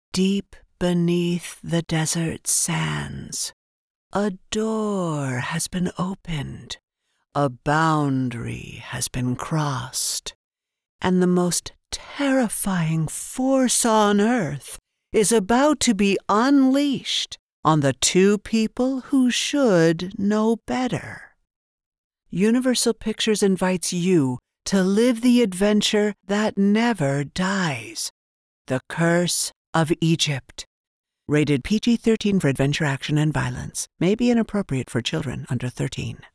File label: Movie Trailer Voice of God Spooky, voiceover